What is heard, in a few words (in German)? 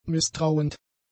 "misstrauend", German; adjectives